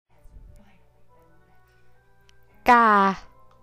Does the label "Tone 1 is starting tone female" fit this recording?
Yes